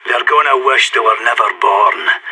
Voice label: pep talk, radio; SAS